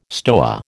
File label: "stoa", American